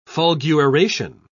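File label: "fulguration", British English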